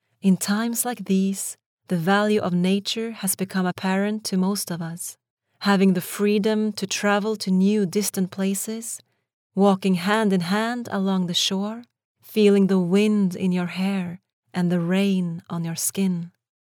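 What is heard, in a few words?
Norwegian Norway female English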